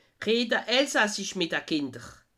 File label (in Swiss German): "reda", alsace